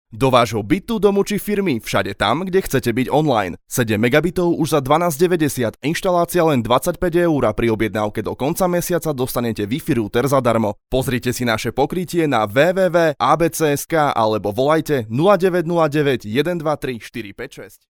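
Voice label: Slovak, male, commercial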